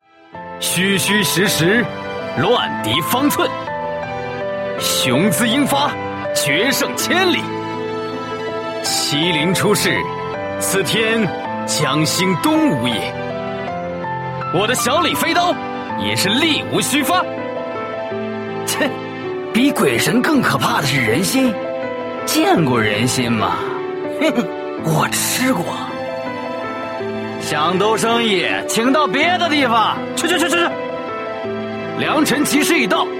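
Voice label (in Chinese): qingnian, young man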